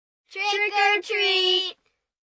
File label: Group Trick Treat, Occasion, Group, 2-3 children; Crowd